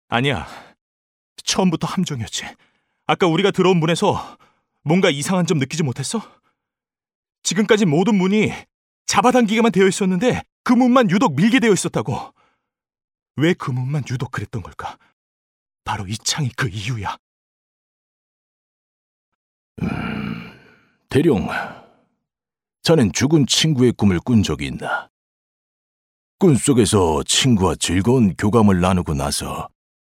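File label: Korean, male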